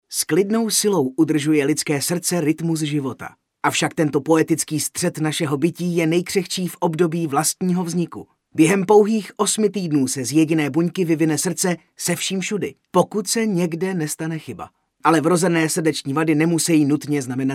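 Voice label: male Czech documentary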